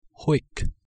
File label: "huic", latin